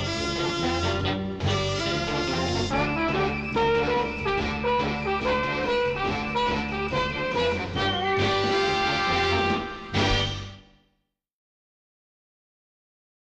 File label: Radio Spot: R And R In Hawaii Ad Spot With Bumper Music; Mono